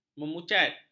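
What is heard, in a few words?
"memucat", Malay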